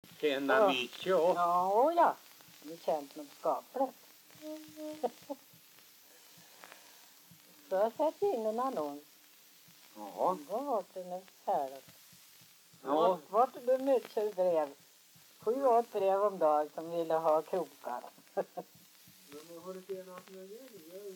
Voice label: dialekter; Folkminnen; Svenska sagor

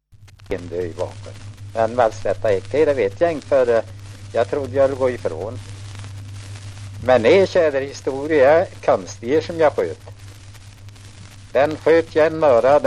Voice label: Sunnemo, dialekter; Folkminnen

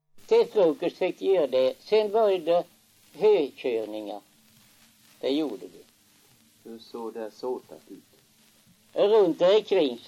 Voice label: dialekter; Folkminnen; Svenska sagor

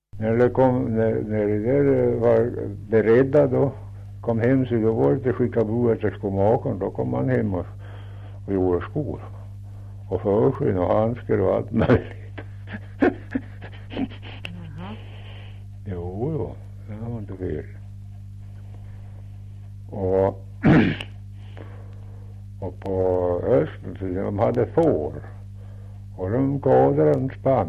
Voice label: Kopparberg, dialekter; Folkminnen

